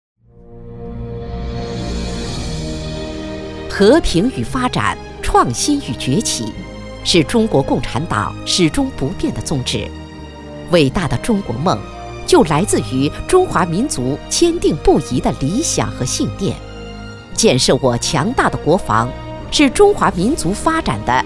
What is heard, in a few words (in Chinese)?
女 专题; 岁月的回声